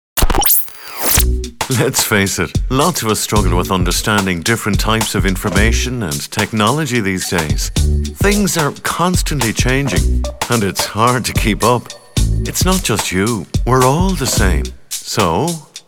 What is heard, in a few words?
Male; Adult Literacy Browser; 96fm